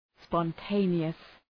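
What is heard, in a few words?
"spontaneous", English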